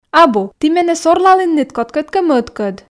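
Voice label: "абу ті менӧ сорлалінныд кодкӧдкӧ мӧдкӧд", Komi, female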